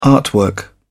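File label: "artwork", British English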